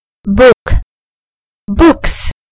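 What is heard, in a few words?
"book", British English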